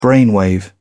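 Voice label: "brainwave", British English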